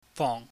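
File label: "fong", Cantonese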